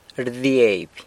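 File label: "rdieby", San Lucas Quiaviní Zapotec; boils (of a liquid)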